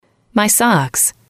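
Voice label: "socks", English, female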